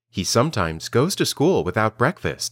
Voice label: Dictation